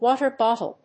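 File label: "water bottle", English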